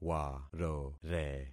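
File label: "warore", concatenated syllables; Affixing experiment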